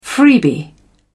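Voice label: "freebie", British English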